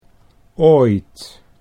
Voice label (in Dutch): "ooit", Dutch